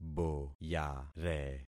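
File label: "boyare", concatenated syllables; Affixing experiment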